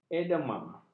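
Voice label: "edema", Malay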